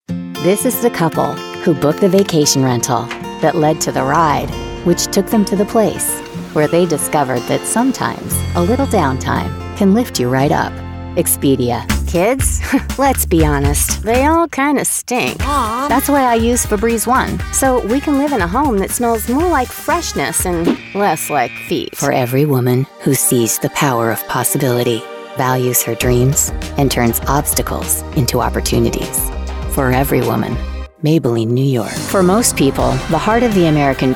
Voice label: Commercial